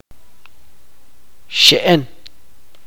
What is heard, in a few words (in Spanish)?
"zancudo", chuj